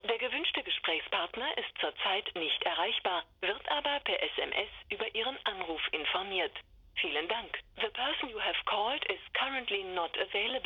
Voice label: Phone Announcement Number Not Available German English